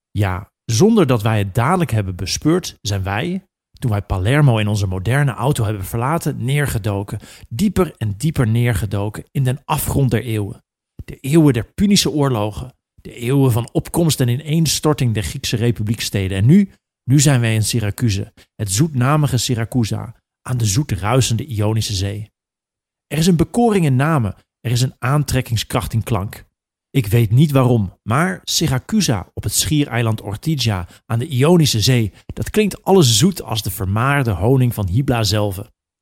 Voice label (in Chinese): 荷兰语男声